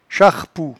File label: "charpou", patois jura